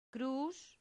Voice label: "crus", latin; santillana